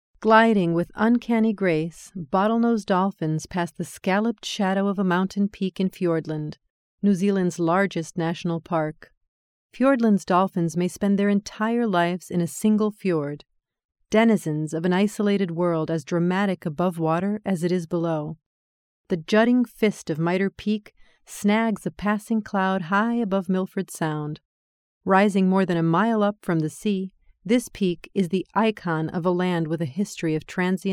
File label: female portuguese voice over english, portuguese portugal